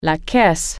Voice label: cash register, french

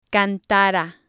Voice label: "cantara", spanish

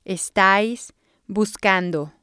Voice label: "estais buscando", spanish

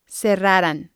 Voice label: "cerraran", spanish

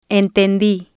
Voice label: "entendi", Spanish

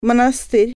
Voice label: monastery, russian

